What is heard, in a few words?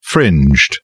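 "fringed", British English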